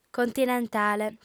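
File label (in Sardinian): "continentale", female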